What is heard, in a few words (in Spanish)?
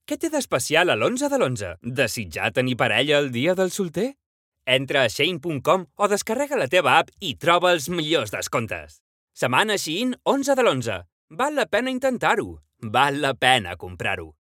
Spot Radio Catalan Interpretado, Radio Spot - Catalan - Ironic